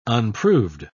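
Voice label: "unproved", British English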